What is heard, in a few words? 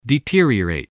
"deteriorate", english spelling